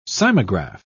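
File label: "cymograph", British English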